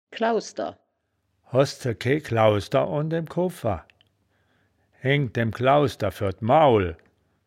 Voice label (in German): "klausder", wittlich; das wittlicher woerterbuch; woerterbuch vertonung